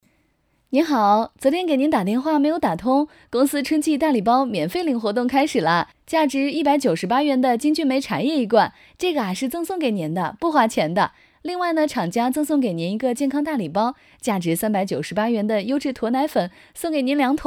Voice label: 产品推销话术

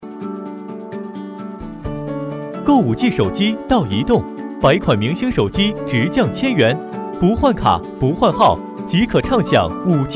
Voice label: 年轻 活力 广告; 中国移动

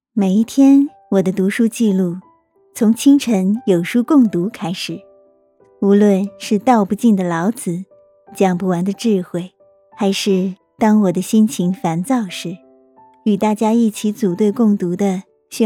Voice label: 广告录音; 第三次惠心期班中稿

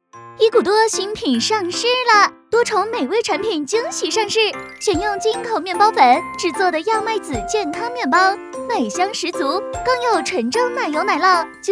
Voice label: 广告活泼可爱类

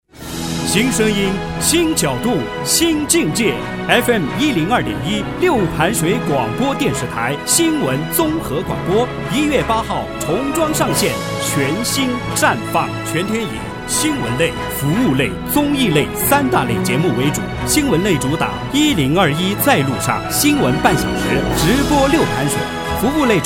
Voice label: 电台频宣

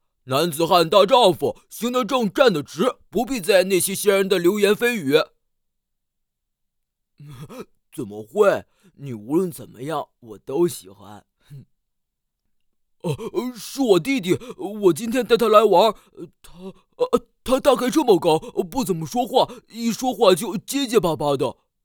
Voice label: 憨憨

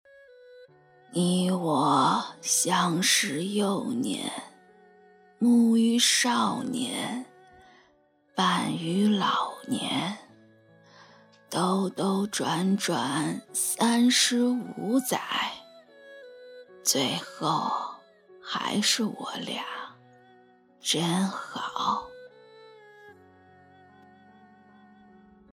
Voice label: 情感语录老年音

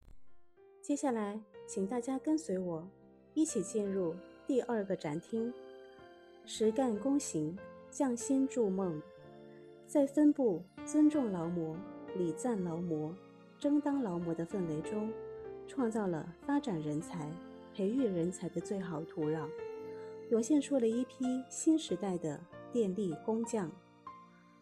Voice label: 展馆 介绍 配音

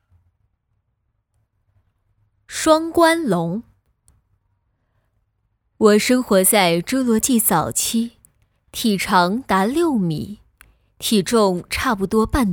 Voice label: 绘本 试音 女成

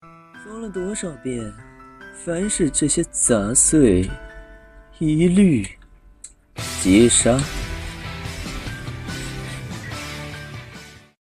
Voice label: 病娇

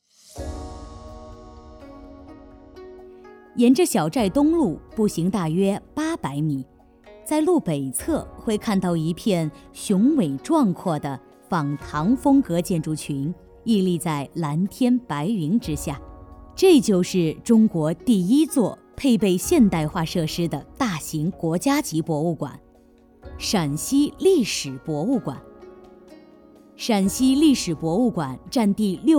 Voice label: 纪录片 博物馆

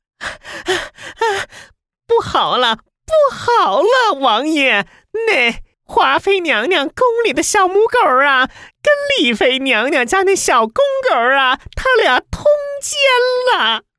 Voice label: 角色太监干音

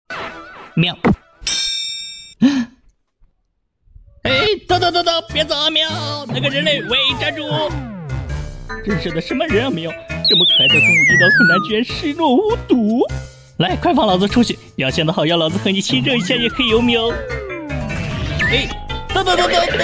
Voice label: 搞怪音 和 高冷; 齐木楠雄的灾难

